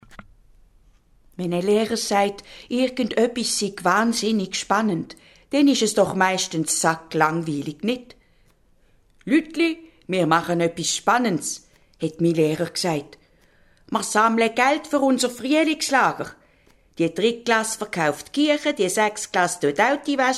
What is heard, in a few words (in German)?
Erzählung für Kinder